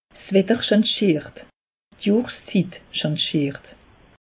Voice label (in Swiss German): "watter schangiert", alsace; meteo